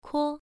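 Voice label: "kuo", Mandarin Chinese, first tone, pronunciation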